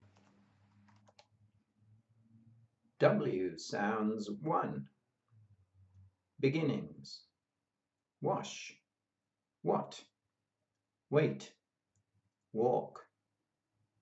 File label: W sounds beginnings, pronunciation of australian english